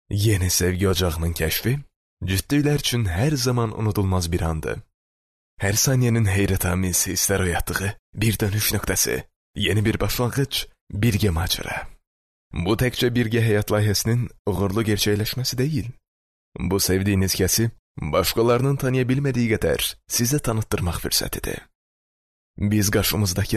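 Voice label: Azerbaijani male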